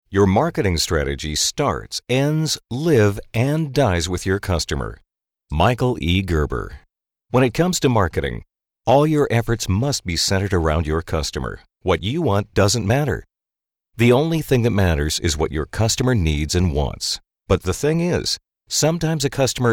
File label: Audio books, American